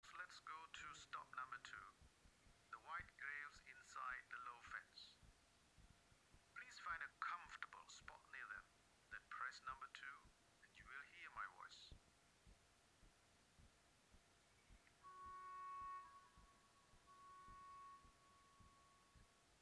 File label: narrator quiet; 2019; Cambodia phnom penh